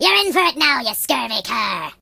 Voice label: "You'll regret that", Pirate; hedgewars